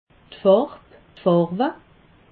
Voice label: "farb", alsace

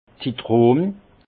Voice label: "zitron", alsace; vin; citron

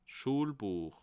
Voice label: "schuelbuech", alsace

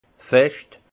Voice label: "fescht", alsace; vin

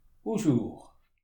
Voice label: "buschur", alsace

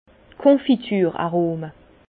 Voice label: "konfitur", Bas Rhin; vin; arômes de confitures

